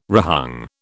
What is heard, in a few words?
"rehung", British